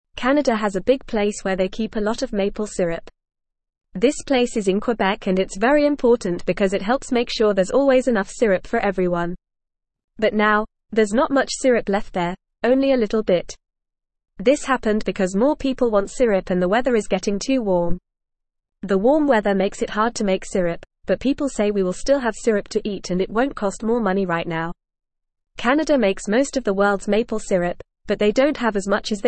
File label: English Beginner FAST Reading; English Newsroom; Canadas Maple Syrup is Running Low